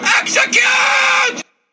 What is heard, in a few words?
execute yell